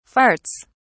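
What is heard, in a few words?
"farts", British English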